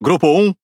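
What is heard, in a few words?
Speech Pilots Torpedo, Brazilian Portuguese; World Of Warships; PT BR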